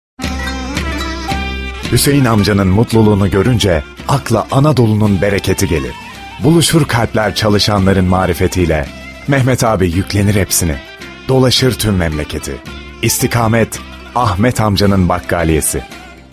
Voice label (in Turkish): reklam drama; eti